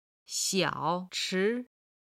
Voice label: "xiao3 chi2", Chinese